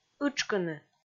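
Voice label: "ытшкыны", Komi, female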